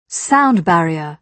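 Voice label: "sound barrier", British English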